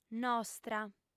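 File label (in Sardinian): "nostra", female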